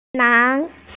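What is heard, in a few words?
"nan2", Mandarin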